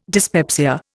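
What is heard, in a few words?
"dyspepsia", Indian English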